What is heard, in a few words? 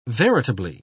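"veritably", British English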